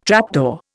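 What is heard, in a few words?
"trapdoor", Indian English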